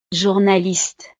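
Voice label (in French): "journaliste", French; profesiones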